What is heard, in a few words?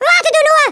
JUST YOU WAIT, Speech, German; Worms Armageddon